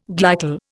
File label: "glycol", Indian